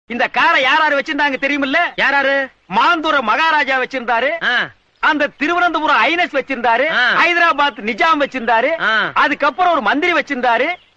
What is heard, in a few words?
Tamil dialogue; goundamani; suriyan